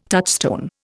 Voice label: "touchstone", Indian

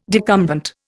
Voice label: "decumbent", Indian

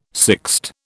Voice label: "sixte", British

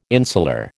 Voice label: "insular", American

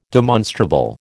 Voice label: "demonstrable", American

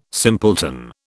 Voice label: "simpleton", British

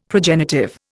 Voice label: "progenitive", Indian; Dictionary

